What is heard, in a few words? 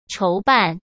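"筹办", Mandarin Chinese; hsk; chinese-flashcards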